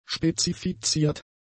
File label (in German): "spezifiziert", german; adjectives